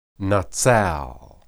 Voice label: "natsal", Hebrew